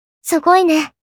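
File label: "Sugoi", Japanese, kawaii voice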